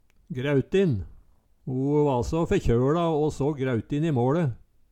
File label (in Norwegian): græutin, Numedalsmål